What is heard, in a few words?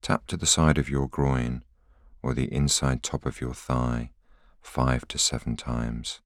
voiceover